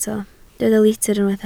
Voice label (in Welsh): "litr", Welsh